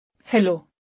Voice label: "Hello", Urdu; Basic Greetings and Courtesy